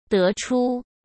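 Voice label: "得出" Mandarin Chinese; chinese flashcards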